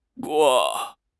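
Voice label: "Guo1", Mandarin Chinese; HSK3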